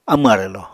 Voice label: "amw arwlò", Apatani